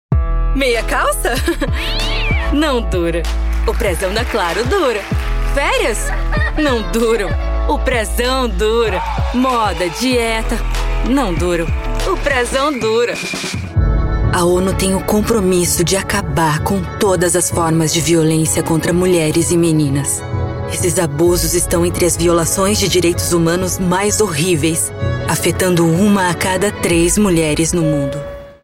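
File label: Brazilian Portuguese, female, commercial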